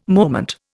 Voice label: "moment", Indian